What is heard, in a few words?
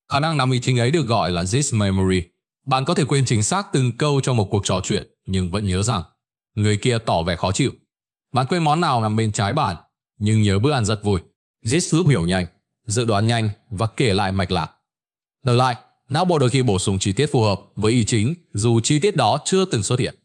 narration; Vi Sao Nao Bo Khien Ban Nho Nhung Dieu Chua Tung Xay Ra; StickerMan